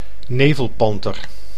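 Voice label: "nevelpanter", Dutch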